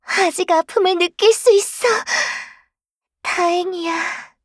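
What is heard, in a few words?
kill Player, Voice Line, Korean; Eternal Return; Garnet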